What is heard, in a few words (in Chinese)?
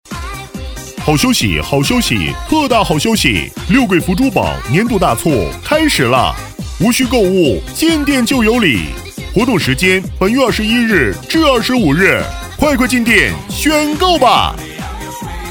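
促销珠宝缩混; 六桂福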